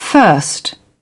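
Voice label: "first", British English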